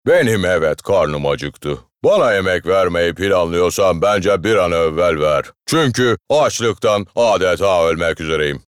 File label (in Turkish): ANIMASYON